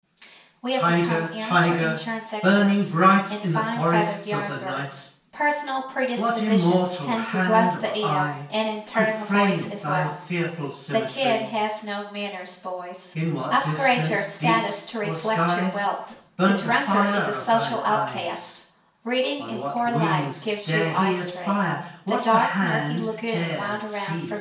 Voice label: mic, speech only; Separated sources